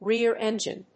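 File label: "rear engine", English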